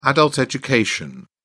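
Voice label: "adult education", British English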